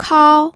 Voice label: "kao1", pinyin